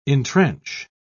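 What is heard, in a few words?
"intrench", English, uk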